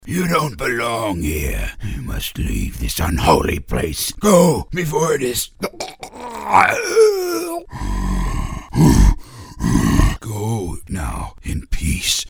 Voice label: Pirate Priest, Dark & Tortured; animation

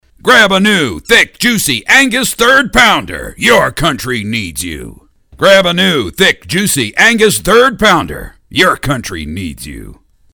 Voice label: Military, Gruff, commercials

